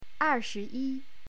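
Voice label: "er4shi2yi1", Chinese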